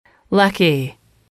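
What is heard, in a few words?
lucky, English, female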